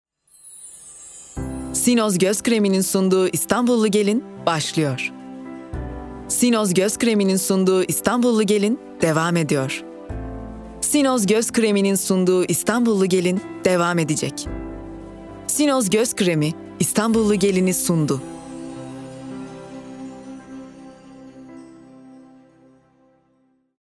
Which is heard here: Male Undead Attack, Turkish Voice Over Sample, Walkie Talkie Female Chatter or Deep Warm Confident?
Turkish Voice Over Sample